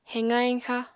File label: "heqa-heñxa", apatani